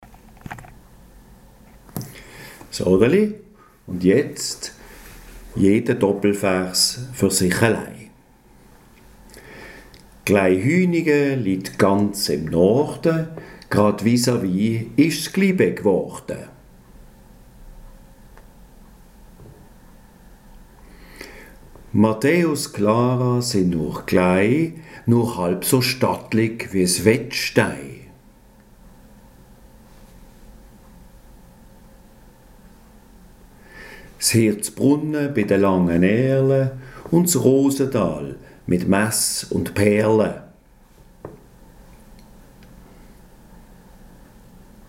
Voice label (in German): Quartiersgedicht mit Pausen